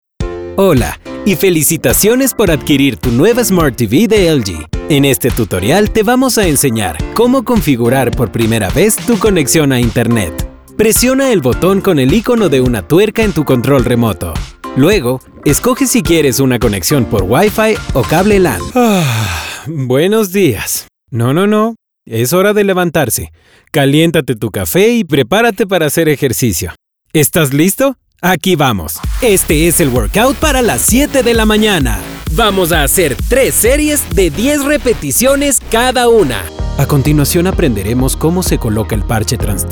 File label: spanish elearning; (I) Neutral Spanish Speaker. Bilingual with a warm, versatile, and natural tone. From corporate to conversational.